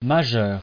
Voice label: "majeur", frengjisht

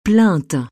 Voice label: "grognement", frengjisht

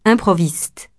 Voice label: "improviste", frengjisht

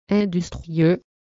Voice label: "industrieux", frengjisht

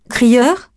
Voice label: "crieur", frengjisht

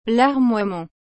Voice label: "larmoiement", frengjisht

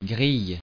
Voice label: "grille", frengjisht

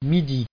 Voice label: "midi", frengjisht

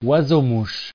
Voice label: "oiseau-mouche", frengjisht

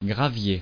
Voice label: "gravier", frengjisht

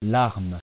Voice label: "larme", frengjisht

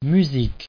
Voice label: "musique", frengjisht

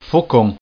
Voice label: "faucon", frengjisht

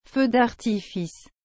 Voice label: "feu-d'artifice", frengjisht

